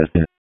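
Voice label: Public Safety Tactical, p25; wake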